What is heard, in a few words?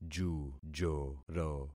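"jujoro", concatenated syllables; Affixing experiment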